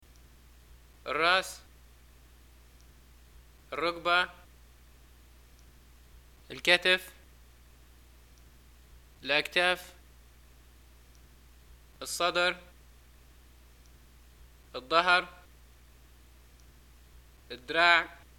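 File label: body parts, Arabic, Libya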